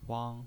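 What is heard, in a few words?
"wang", pinyin voice, first tone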